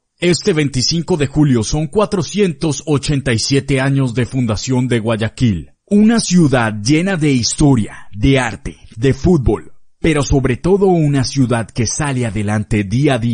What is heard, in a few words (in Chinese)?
西班牙男声